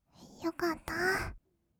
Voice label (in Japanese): よかったー, kawaii voice; ASMR; 色々なワンショット; 1200 kawaii voice!!